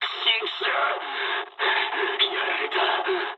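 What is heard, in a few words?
aircraft destroyed mood med, speech japanese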